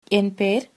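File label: my name is, tamil, female; Tamil (informal); எம் பேர் ...; em per…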